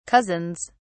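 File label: "cousins", British English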